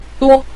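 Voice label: "duo", Mandarin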